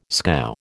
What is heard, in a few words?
"scow", American